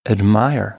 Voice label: "admire", American English; ɹ - əɹ